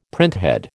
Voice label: "printhead", American